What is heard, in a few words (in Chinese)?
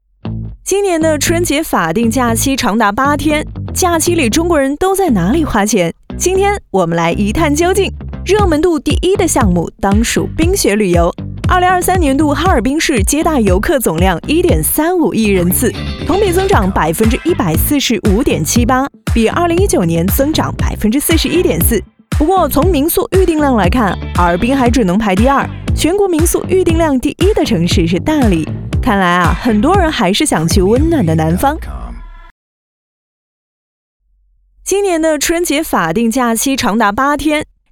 解说自媒体自然活力轻快